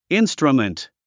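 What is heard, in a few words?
"instrument", English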